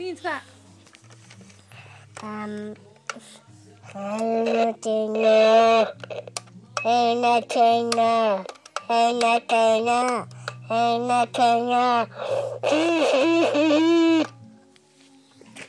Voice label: kid talk